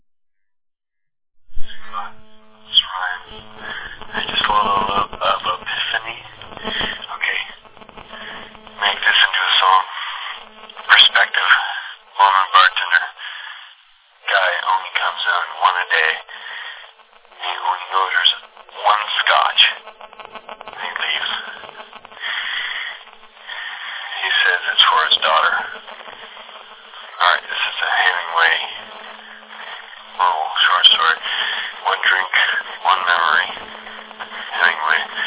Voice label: voice mail